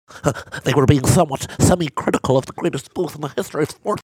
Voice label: funny